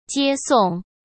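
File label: "接送", Mandarin Chinese; hsk; chinese-flashcards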